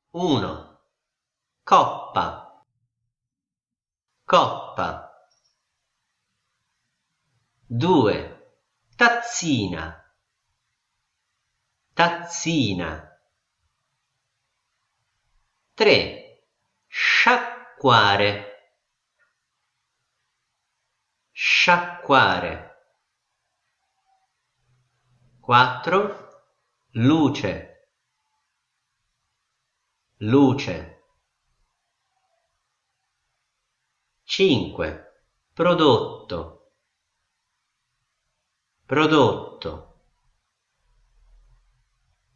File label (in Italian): dettato doppie